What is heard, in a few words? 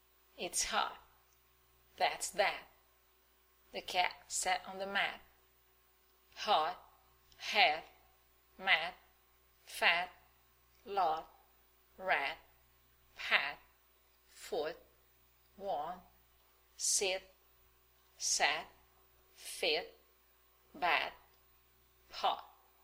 Stop sounds; flap sounds e stop sounds